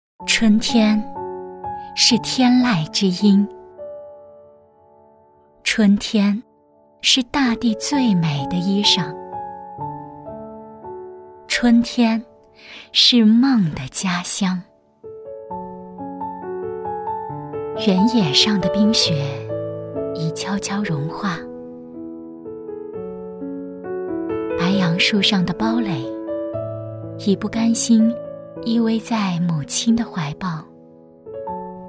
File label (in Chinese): 旁白; 春天是天籁之音